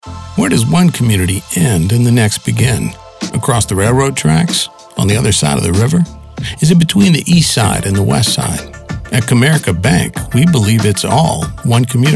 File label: Gritty Urban Storyteller